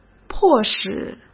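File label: "迫使", Mandarin Chinese; chinese-flashcards; hsk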